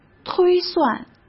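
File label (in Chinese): "推算" Mandarin Chinese; chinese-flashcards; hsk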